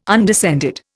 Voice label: "undescended", Indian